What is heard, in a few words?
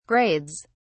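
"grades", English, British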